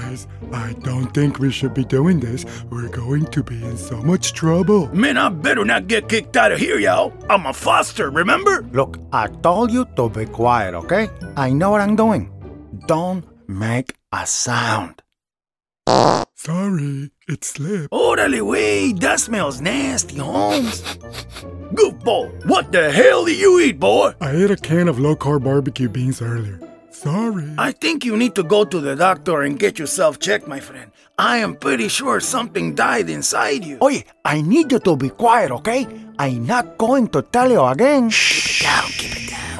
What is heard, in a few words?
Animation Reel